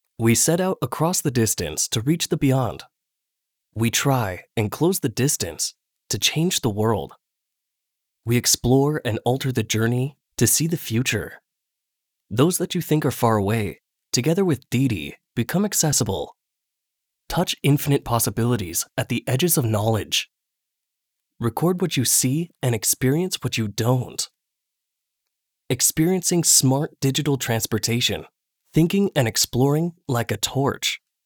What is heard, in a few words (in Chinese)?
外籍男英 外语 美式英语; 滴滴